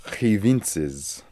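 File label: "Hivintzes", pronunciations; Fourth Way; Beelzebub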